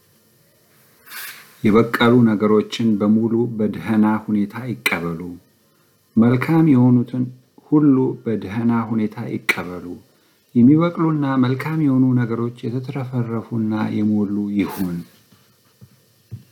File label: amharic